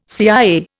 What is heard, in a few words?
"CIA", Indian